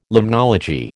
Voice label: "limnology", American